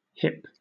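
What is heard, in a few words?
"hyp", British English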